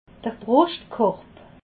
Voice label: "bruschtkorb", alsace

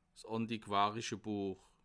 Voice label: "'s àntiquàrische", Bas Rhin; le livre ancien

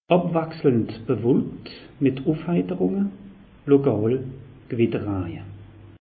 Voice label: "abwachselnd", alsace; meteo

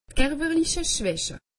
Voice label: "kerwerliche schwache", alsace; medecine

